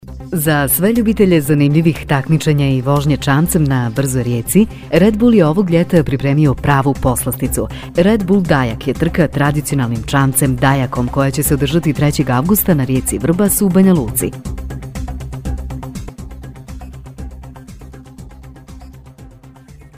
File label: Bosnian (BH) VO for Sport event; Redbull Dajak